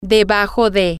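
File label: underneath, Spanish, female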